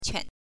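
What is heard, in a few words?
"quan", Mandarin pinyin, neutral tone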